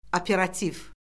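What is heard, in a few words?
"operativ", Azerbaijani; Orfoqrafiya Lüğəti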